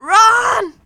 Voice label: Panic; zps; Humans; HumanLea